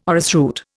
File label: "orrisroot", Indian